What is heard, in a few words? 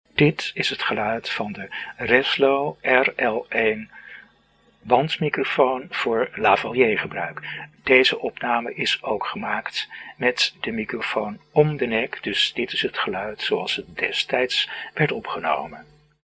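Reslo RL-1 lavalier, Dutch; Microfoon; Microfoon van de maand juni 2026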